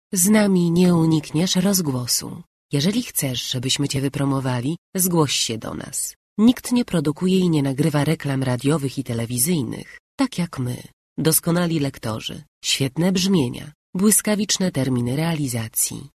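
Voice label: Polish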